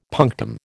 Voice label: "punctum", American